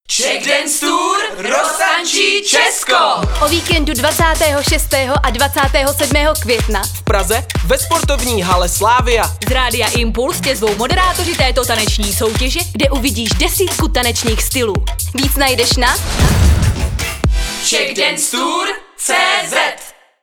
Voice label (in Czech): radio spot; czechdancetour; radio impuls